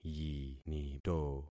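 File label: "yinito", concatenated syllables; Affixing experiment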